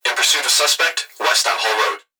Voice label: Emergency Human Young Male Voice Police Radio In Pursuit Of Suspect West On Hall Road; emergency effects